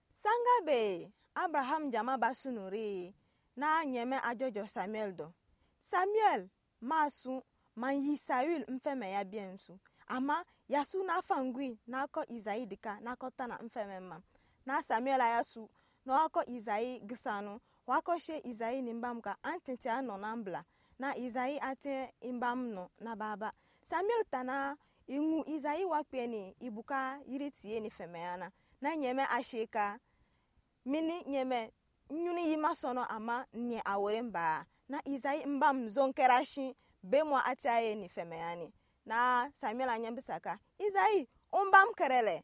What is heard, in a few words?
Anufo; David oint Roi; David Anointed King